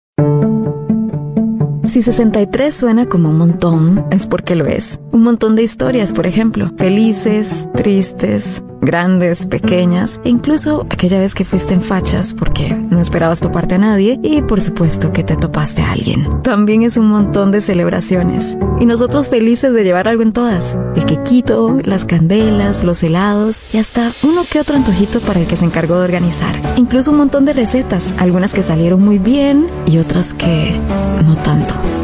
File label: spanish voice over female narrator